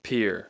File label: "pier", English